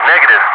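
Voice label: "negative", English speech, Wingman; DCS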